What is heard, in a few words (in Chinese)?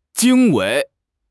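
"经纬", Chinese; HSK6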